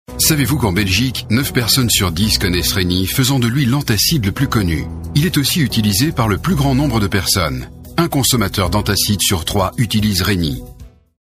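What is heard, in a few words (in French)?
Belgian French, male, narration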